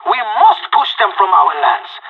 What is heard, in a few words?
radio lock n load, pirate; Counter Strike Model Pack; CS:GO